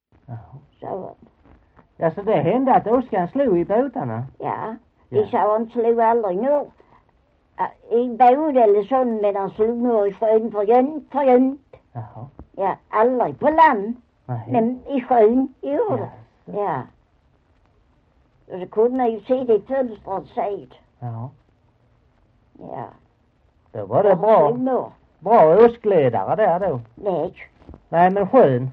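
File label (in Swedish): Maglarp Skåne, Svenska sagor dialekter; Folkminnen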